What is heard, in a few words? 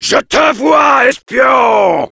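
Heavy cloaked spy, French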